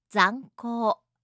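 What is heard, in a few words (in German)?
"zanko", japanische Mädchennamen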